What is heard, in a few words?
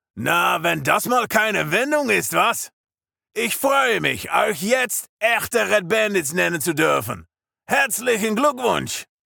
male dutch german character voice